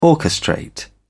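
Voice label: "orchestrate", English, British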